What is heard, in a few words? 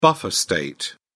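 "buffer state", British English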